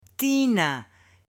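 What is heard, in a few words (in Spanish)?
"TINA", mujer; MUEBLES; AppLenguaje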